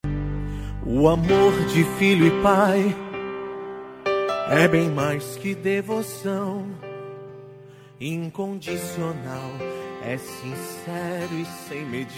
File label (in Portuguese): masc gosple, evangelico voz masculina; Dia dos Pais